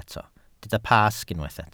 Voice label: "Pasg", Welsh

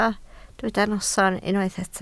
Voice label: "noson", Welsh

